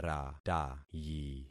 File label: "ratayi", concat syllables; Affixing experiment